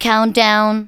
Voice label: "COUNTDOWN", SPOKEN; Techno Trance Essentials

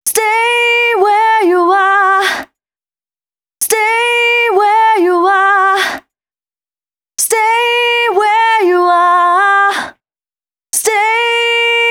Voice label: female c 135, hooklines, Dance Ultra Voice; hooklines 136-140 BPM; Mutekki Media; Dance Ultra Voice VOL-1

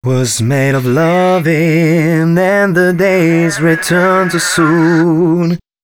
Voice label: male c# 133, hooklines, Dance Ultra Voice; hooklines 131-135 BPM; Mutekki Media; Dance Ultra Voice VOL-1

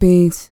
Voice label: FEM. SPOKEN; R&B Dance

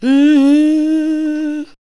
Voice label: ADLIBS 90; Ueberschall; Jam Box

